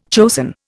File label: "chosen", Indian